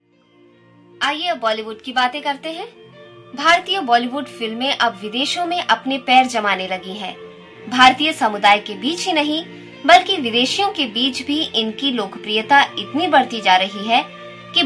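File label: hindi, voice sample; MediaVoix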